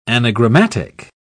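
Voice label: "anagrammatic", British English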